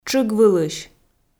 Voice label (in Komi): "тшыгвылысь", Komi